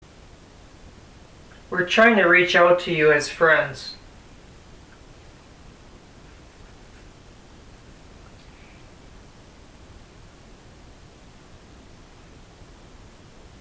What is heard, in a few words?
"Okay", EVP